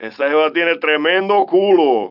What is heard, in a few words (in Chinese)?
拉丁裔海滩中年男性; 拉丁裔海滩中年男性（罪恶都市）